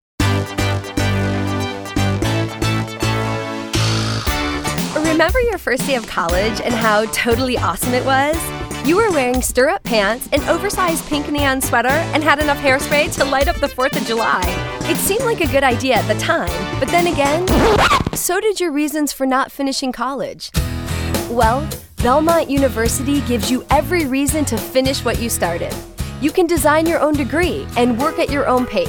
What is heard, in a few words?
Radio